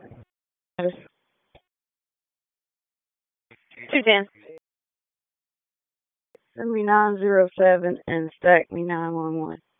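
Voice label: Police Northeast District Dispatch, p25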